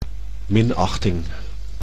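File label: "minachting", Dutch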